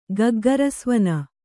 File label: "ಗಗ್ಗರಸ್ವನ", Kannada